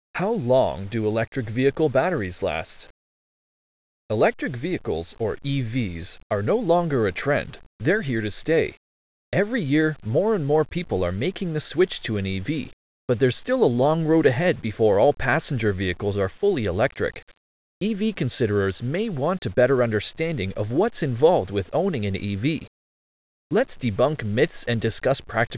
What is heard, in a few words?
Blog Voiceover; How long do electric vehicle batteries last